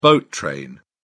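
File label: "boat train", British English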